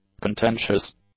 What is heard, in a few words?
"contentious", English; adjectives